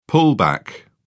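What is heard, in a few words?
"pullback", British English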